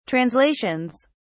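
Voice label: "translations", British English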